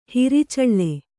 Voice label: "ಹೊರಚಾಳಿ", Kannada